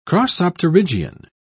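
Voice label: "crossopterygian", British English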